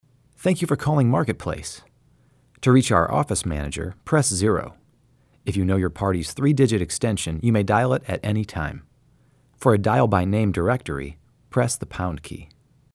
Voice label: Voicemail